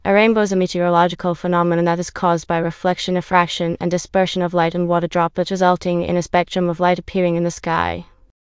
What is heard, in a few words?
speaker, en GB; piper voices; vctk